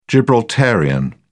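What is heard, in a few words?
"gibraltarian", British English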